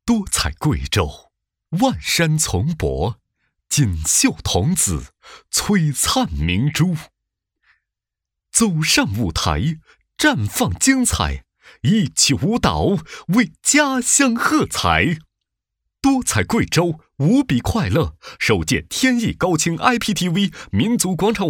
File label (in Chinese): 男 广告; 多彩贵州